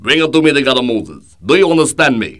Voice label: giberish